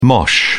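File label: "mosh", British English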